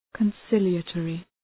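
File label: "conciliatory", English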